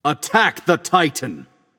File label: vox attack m; smite; anubis